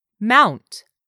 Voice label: "mount", English; 3000 words